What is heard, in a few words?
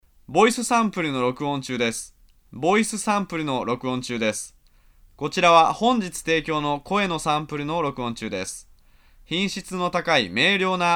locutor japones japanese voiceover; locutor de Japón